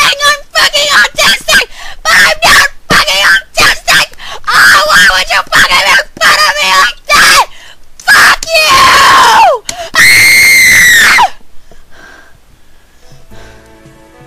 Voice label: squeaker rage; freak fortress 2